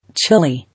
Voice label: "Chilly", English